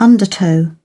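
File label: "undertow", British English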